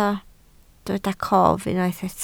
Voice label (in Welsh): "cof", Welsh